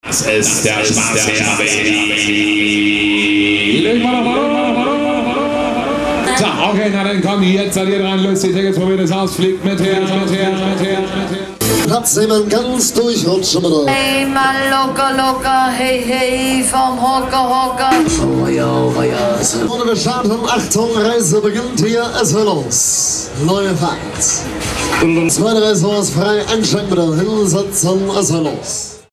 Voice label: octoberfest animateur